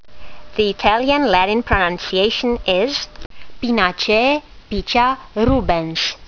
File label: latin; Picea rubens